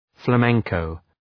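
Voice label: "flamenco", English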